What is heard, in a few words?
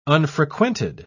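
"unfrequented", English, uk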